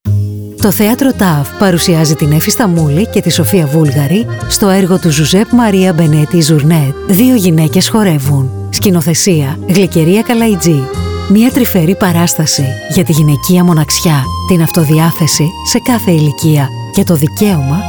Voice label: Radio Spot; Δυο Γυναίκες Χορεύουν